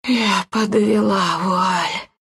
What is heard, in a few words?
Phass death, ru; Dota 2